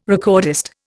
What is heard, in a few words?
"recordist", Indian